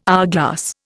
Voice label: "hourglass", Indian